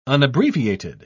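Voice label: "unabbreviated", British English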